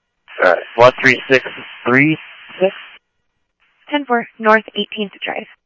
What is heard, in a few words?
Phoenix PD, scanner audio